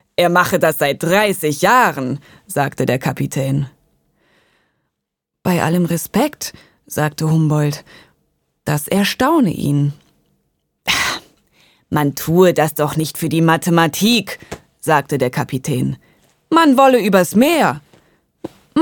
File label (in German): Hoerspiel